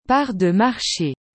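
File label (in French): "part de marche", French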